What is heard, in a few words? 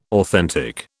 "authentic", British